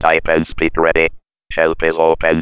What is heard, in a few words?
TNS, synthesizers, Blazie Engineering; vintage-access